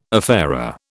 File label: "affair", British